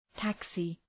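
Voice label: "taxi", English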